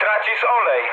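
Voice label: damaged oil, mood med, Polish speech; War Thunder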